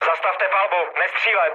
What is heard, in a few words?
player friendly fire, speech cze, mood low; War Thunder